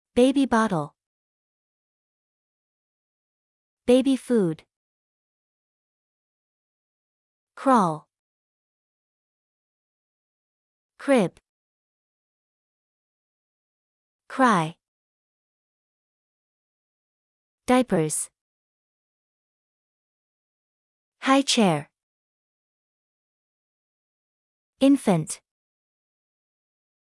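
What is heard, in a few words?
American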